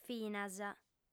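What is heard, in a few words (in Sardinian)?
"finas", female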